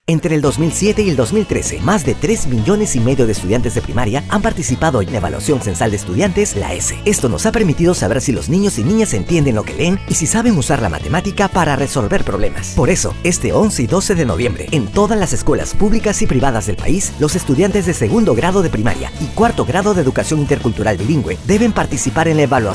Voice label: Spot radio